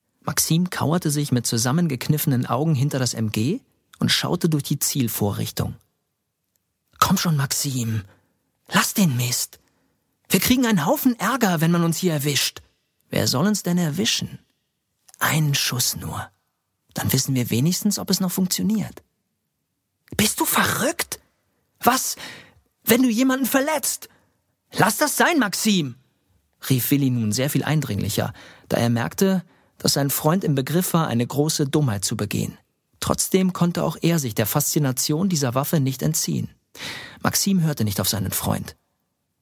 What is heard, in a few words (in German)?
Hoerbuch, westfaelisch dialekt; Roter Herbst; Stimmwerk